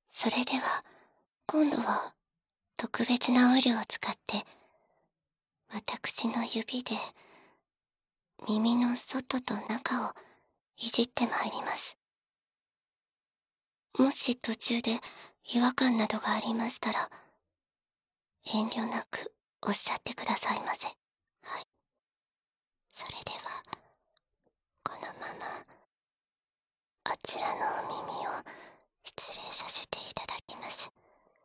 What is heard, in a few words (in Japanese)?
耳オイル攻め（囁き重視編集 効果音あり大きめ）, ASMR